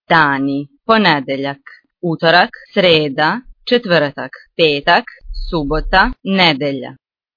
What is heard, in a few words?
bosnian